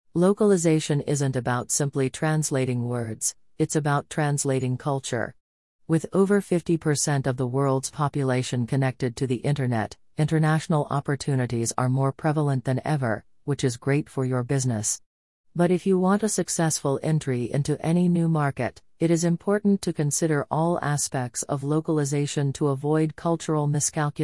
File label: english usa female